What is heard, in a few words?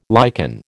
"liken", American